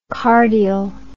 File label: "cardial", English